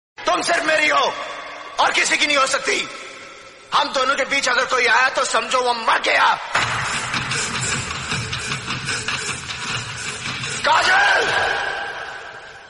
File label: Dialouge Hindi; Tum Sirf Meri Ho Aur Kisi Ki Nahin Ho Sakti; Sunny Deol